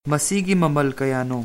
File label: how much, manipuri